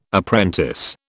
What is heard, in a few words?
"apprentice", British